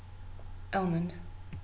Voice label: "almond", f